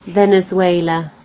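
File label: "venezuela", British English; gcompris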